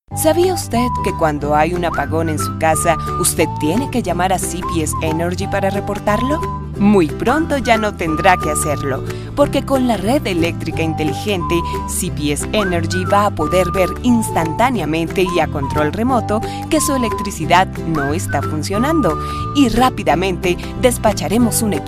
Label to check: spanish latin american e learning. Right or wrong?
Right